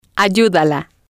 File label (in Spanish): ayúdala, Spanish